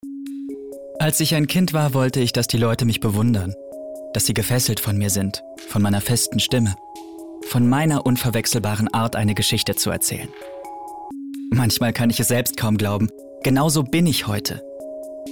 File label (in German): erzaehlung